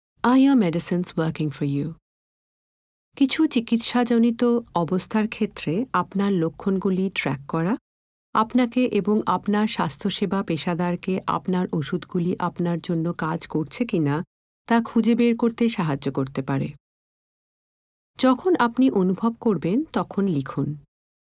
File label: Symptom tracker Bengali